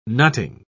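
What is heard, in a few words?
"nutting", English, British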